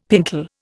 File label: "pintle", Indian; Dictionary